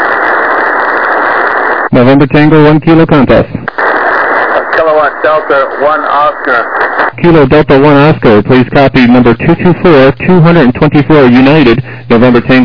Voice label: LSB 7MHz